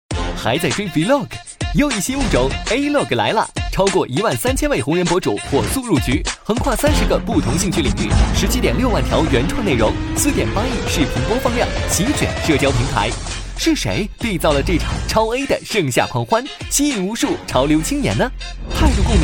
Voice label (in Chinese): 男,年轻解说